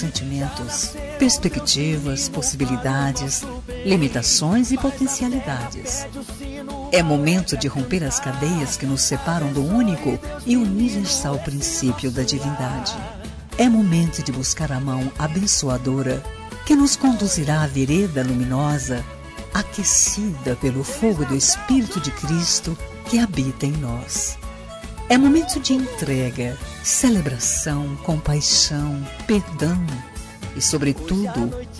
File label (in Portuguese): FELIZ NATAL FEM; Datas importantes; Natal e Ano novo; NATAL VARIADOS